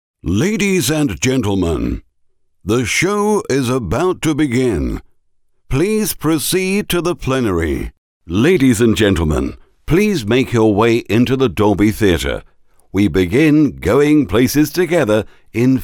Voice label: announcements uk voiceover